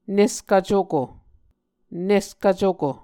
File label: "neskv cuko", Muscogee